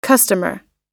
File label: "customer", Oxford